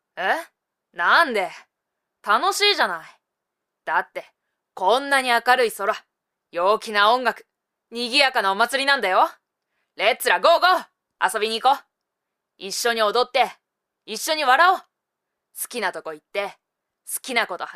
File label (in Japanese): セリフ少女ボーイッシュ